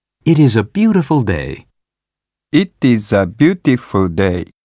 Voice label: Pronunciation Basic